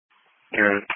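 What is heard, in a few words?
Police Patrol Dispatch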